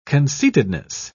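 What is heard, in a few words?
"conceitedness", British English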